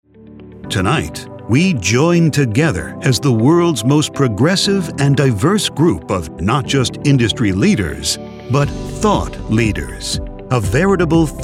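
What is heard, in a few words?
voice of god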